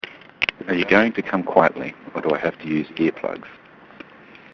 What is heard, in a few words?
Underwater